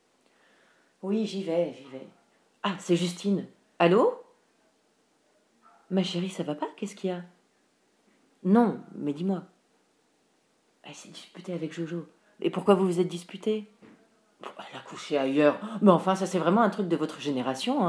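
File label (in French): conversation téléphonique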